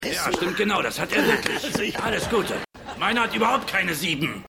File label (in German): Aelterer Mann, Stimmproben; Seinfeld